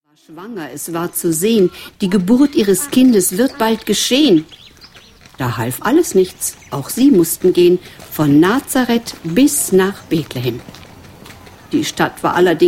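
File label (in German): Reimerzählung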